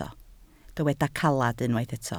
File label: "caled", Welsh